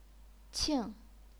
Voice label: "qing4", chinese pinyin